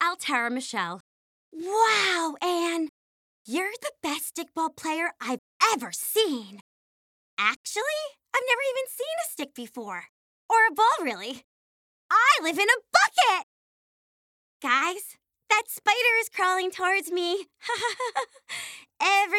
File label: ANIMATION VO REEL; DISNEY ANIMATION